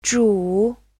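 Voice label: "zhu3", Chinese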